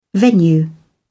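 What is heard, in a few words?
"venue", British English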